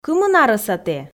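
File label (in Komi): кымын арӧса тэ, Komi, female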